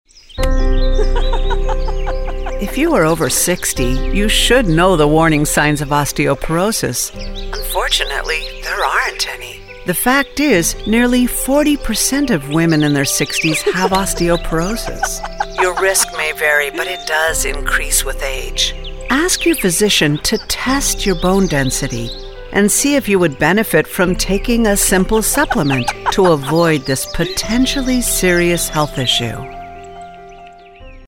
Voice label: Medical warm friendly sincere mid tone, Commercial Voiceover